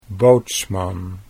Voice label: "bootsman", Dutch

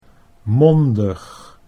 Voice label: "mondig", Dutch

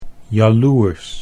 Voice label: "jaloers", Dutch

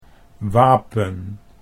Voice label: "wapen", Dutch

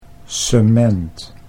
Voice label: "cement", Dutch

